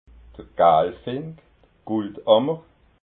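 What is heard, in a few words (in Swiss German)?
"gaalfink", Alsatian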